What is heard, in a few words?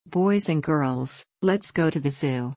fast